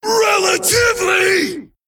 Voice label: Male Undead Attack

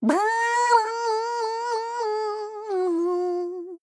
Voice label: Female Human Greetings

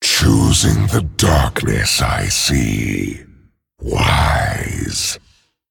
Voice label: Male Dragon Picked

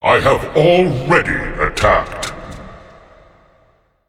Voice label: Male Demon ERROR I ATTACKED

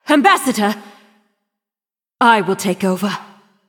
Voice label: Female Nightborne

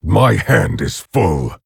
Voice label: Orc Emote ERROR HAND FULL; Nerzhul

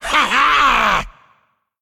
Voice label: Male Demon Attack

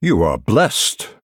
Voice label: Male Night Elf Well Played

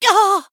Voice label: Female Night Elf Death

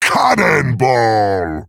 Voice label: Male Tauren; Smite; Scabbs; Hero Power

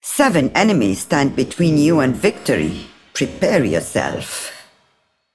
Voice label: Female Broker New Game

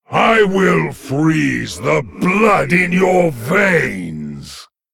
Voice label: Male Lich

